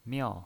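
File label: "miao", pinyin voice, Mandarin Chinese, fourth tone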